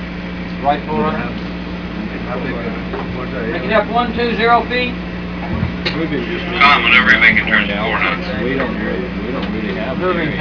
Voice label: chatter in the conning tower; rudder and depth orders; Caiman